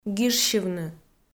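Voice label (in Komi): "гижсьывны", Komi, female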